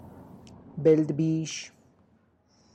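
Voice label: "lisa" fish cured in sea salt, Tlacochahuaya Zapotec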